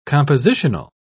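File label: "compositional", English, British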